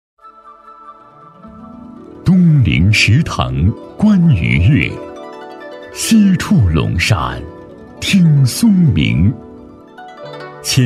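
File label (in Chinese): 国语 男声 专题片 大气浑厚磁性 沉稳; 水墨杨桥 康养名镇城市宣传片 大气沉稳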